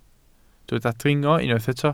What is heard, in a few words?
"dringo", Welsh